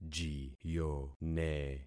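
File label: "jiyone", concatenated syllables; Affixing experiment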